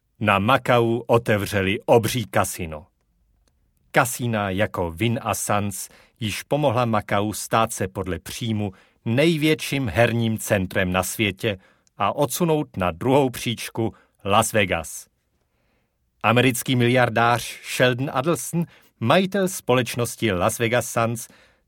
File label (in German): Tschechien M